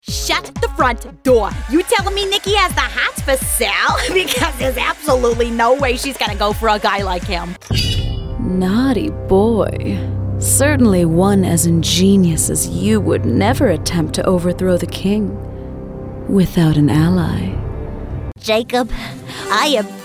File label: Animation